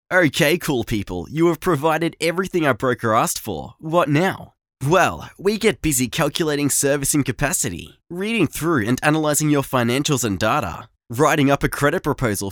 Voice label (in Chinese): 外籍英男 干音